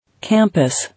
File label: "Campus", English